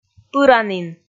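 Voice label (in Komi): "пыранін", Komi, female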